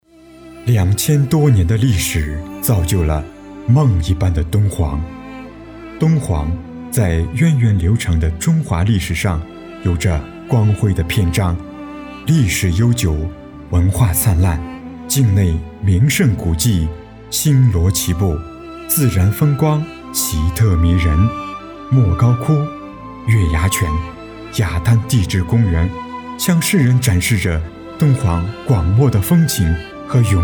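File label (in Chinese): 男【专题】; 历史旅游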